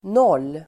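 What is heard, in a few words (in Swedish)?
"noll", Swedish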